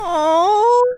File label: whine